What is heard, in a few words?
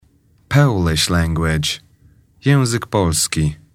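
"polish language", English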